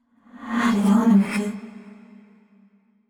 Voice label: surprised; yamiko